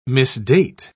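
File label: "misdate", British English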